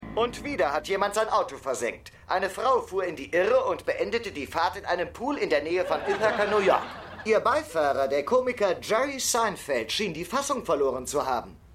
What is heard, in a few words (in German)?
TV Sprecher, Stimmproben; Seinfeld